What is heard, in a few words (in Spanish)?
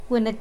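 Nawat palabras niño; pipil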